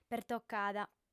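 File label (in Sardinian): "pertocada", female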